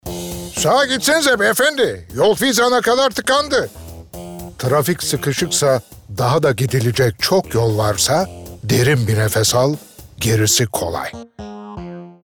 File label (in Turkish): Komik